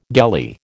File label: "gelly", American